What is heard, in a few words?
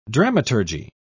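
"dramaturgy", British English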